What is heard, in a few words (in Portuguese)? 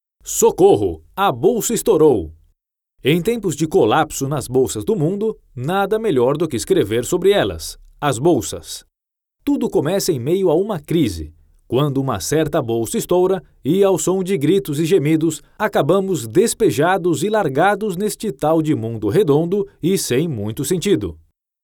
A bolsa estourou, Portugues, Hombres; Casting Impulse